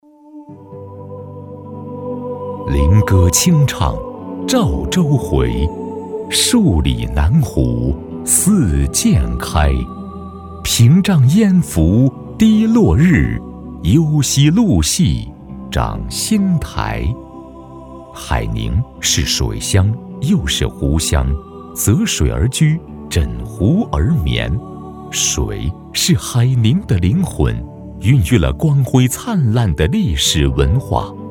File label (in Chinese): 男, 宣传; 称钩港水系美丽